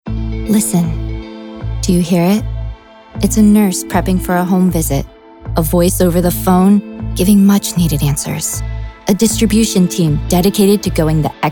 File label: Heartwarming Touching